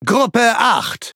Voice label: Speech Pilots Fighter ID, DE; World Of Warships

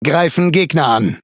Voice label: Speech Pilots Bomber Engage, DE; World Of Warships

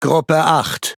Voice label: Speech Pilots Bomber ID, DE; World Of Warships

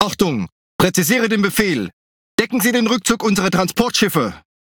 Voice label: MESSAGE RUN, DE; PVE

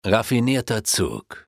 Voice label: Speech, German, Advisors; Civ5; Clever Crushed Opponent

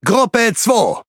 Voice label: Speech Pilots Fighter ID, DE; World Of Warships

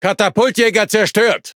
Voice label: Speech Cat Fight Destroyed, DE; ConsPilots; World Of Warships

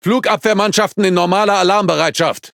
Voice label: Speech Consumable AAC normal, DE; World Of Warships

